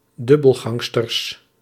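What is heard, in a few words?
"dubbelgangsters", Dutch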